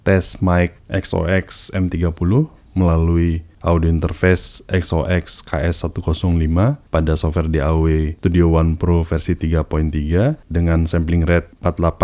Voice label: Sample Mic Condensor XOX M30; via Audio Interface XOX KS105 in Studio One Pro 3.3 SR24kHz SB24bit